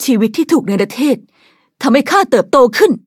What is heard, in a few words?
levelup, th; cookie run